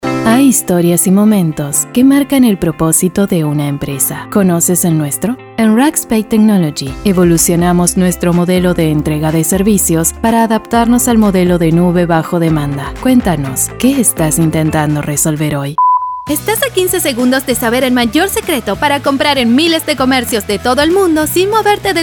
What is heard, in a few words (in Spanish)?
Comercial Neutro